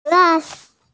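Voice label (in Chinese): "shu cai", Mandarin Chinese, female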